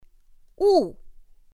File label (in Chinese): "wù", pinyin; pinyin2; pinyinji